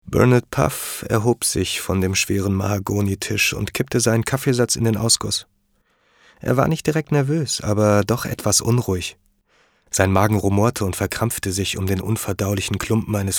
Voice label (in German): hoerbuch, deutsch maenner; sprecher